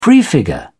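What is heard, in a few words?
"prefigure", English, British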